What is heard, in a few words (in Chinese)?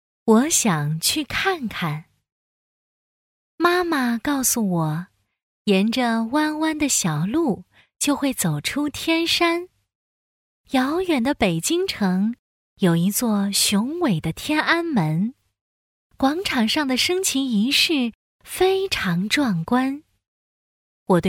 女 有声读物 卖火柴的小女孩